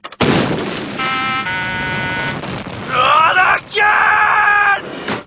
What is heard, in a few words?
angry